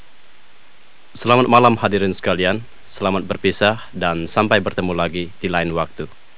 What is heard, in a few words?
indonesian; Golden Record